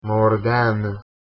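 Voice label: "mordan", Persian, male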